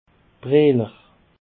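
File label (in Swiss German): "braeler", Alsatian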